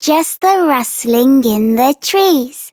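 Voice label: Child Rhymes Fear Child Female; Duviri; Childrens Rhymes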